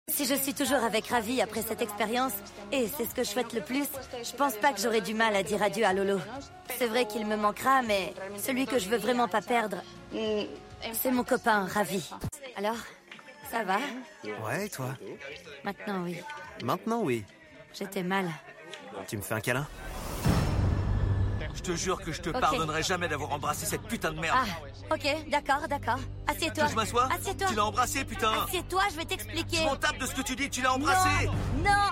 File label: voice over; deep fake love